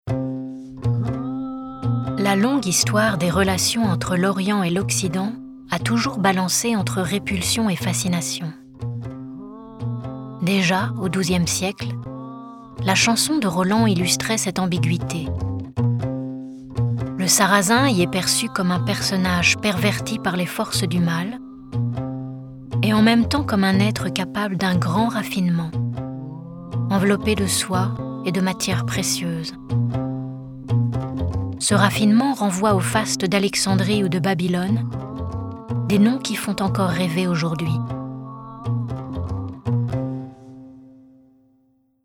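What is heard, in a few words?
French documentary; sprecher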